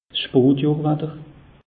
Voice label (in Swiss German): "spotjohr watter", alsace; meteo